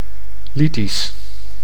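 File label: "lytisch", Dutch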